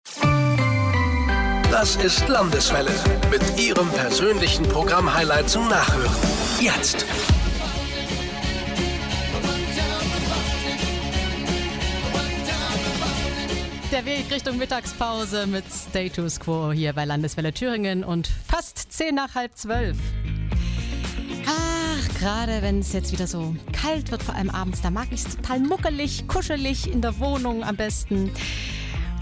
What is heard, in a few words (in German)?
telefon interview radio mitschnitt; tiny house tour; radio landeswelle thüringen